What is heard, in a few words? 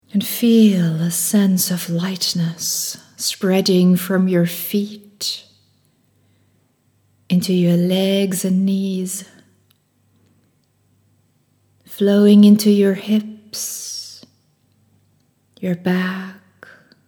Reconnect Recharge Calm EN